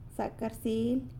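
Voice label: "Zacarsily" Good Morning!, San Lucas Quiaviní Zapotec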